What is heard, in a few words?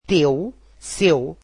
portuguese your